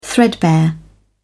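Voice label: "threadbare", British English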